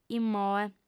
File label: "immoe", audiofem